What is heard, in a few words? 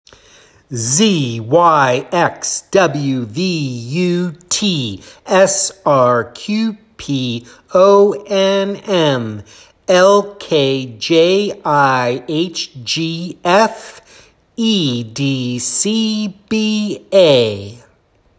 Alphabet Backwards Slow